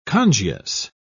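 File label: "congius", British English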